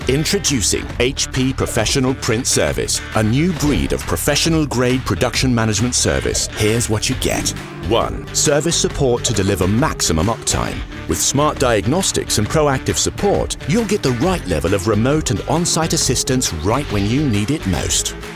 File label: EXPLAINER VIDEO; HP PRO PRINT